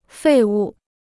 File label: "废物", Chinese